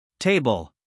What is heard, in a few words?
"table", us male